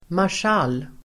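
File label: "marschall", Swedish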